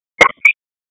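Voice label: Raleigh Police Northeast District Tactical, p25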